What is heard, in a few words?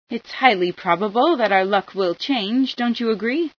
luck will change, female